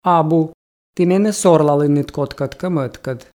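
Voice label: "абу ті менӧ сорлалінныд кодкӧдкӧ мӧдкӧд", Komi, female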